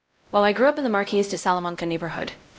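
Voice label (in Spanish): Voice Acting, es, female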